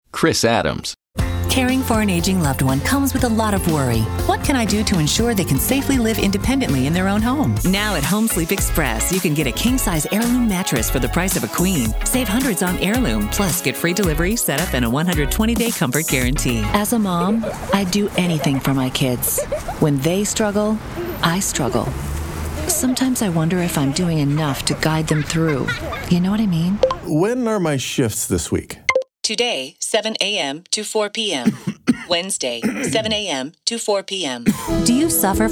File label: COMMERCIAL